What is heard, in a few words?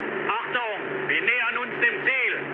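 German near waypoint mood low, speech; War Thunder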